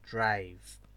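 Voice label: "drive", Nigerian Pidgin, male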